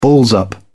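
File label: "balls up", British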